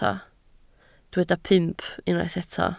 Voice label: "pump", Welsh

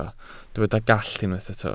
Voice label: "gallt", welsh

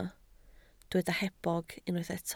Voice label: "hebog", Welsh

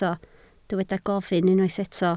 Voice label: "gofyn", Welsh

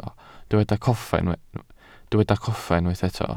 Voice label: "coffa", Welsh

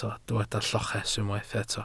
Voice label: "lloches", Welsh

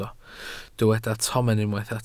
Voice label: "tomen", Welsh

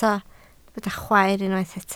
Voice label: "chwaer", Welsh

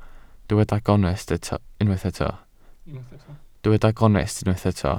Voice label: "gonest", Welsh

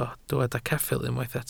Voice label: "ceffyl", Welsh

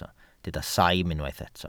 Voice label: "saim", Welsh

